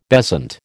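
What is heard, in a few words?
"bezant", American English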